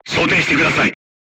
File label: voice message load, crew tanks japanese